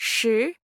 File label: Chinese Number 10